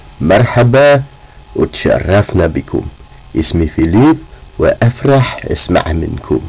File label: Arabic